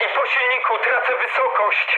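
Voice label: damaged engine, mood med, Polish speech; War Thunder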